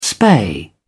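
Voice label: "spay", British English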